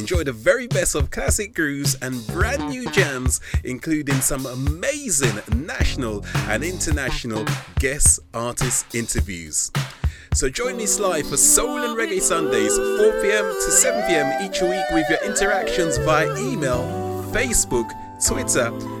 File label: Radio Show Trailer; Colourful; Sly